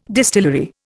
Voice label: "distillery", Indian

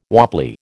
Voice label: "wobbly", American

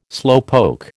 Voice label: "slowpoke", American

